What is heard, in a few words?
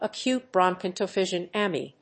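"acute bronchopneumonia", English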